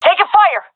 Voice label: taking fire, seal fem; vo; Counter Strike Model Pack; CS:GO